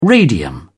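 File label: "radium", British English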